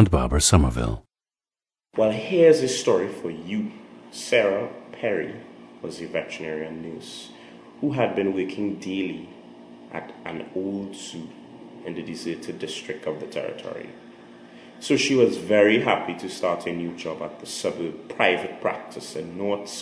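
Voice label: trinidad, dialects of English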